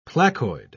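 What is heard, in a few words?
"placoid", British English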